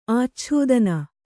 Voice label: "ಆಚ್ಛಾದನ", Kannada